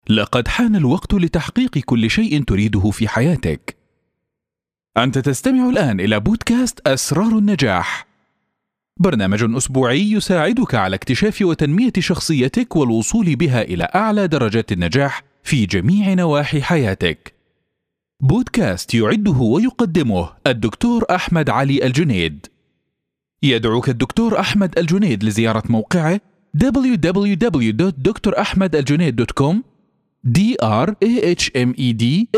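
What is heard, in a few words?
arabic male; lektoring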